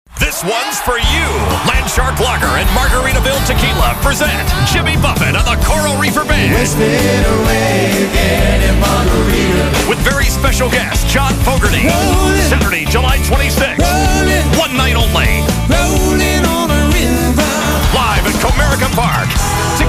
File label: radio ad